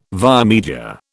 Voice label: "via media", British